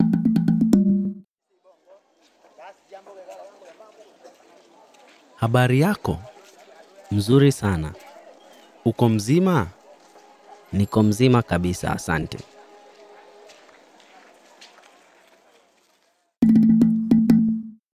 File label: dialog, swahili; swahilipod101